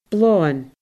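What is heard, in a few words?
"bleoghann", Scottish Gaelic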